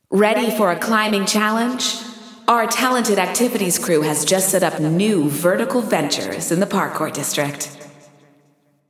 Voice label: Announcing New Challenges; Parkour; GAIA

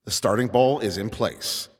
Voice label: Ball Placed in Start Ring, Announcer

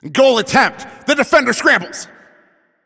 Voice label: Shot On Goal, Announcer

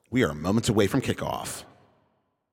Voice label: 10 Seconds To Game Start, Announcer

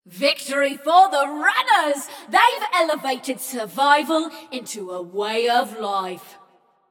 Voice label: Runners Win, Announcer; Flux; Scraprun

